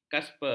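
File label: "kaspe", Malay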